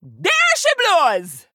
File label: Female Troll; Hooktusk; Tamsin Mission2 Hero Power